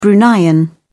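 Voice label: "bruneian", English, British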